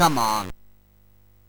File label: Bouncy Balls speech, MOS 6581